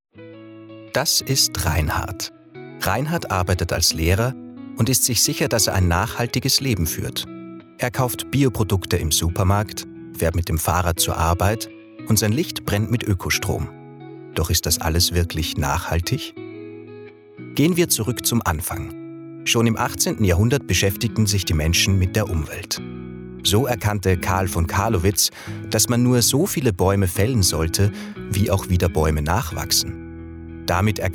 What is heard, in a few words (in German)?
Erklaervideo Nachhaltigkeit